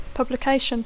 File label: "publication", English